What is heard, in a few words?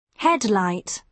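"headlight", British English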